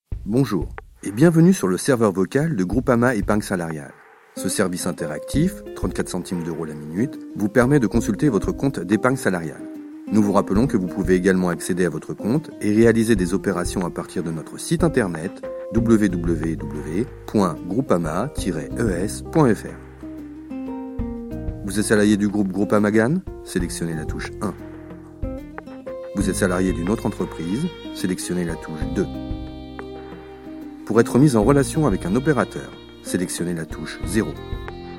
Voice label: IVR